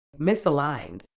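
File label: "misaligned", British English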